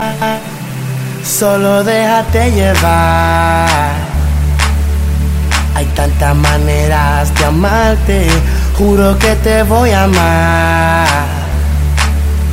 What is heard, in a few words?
omg spanish ringtone